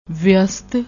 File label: "veast", Punjabi; ਵਿਅਸਤ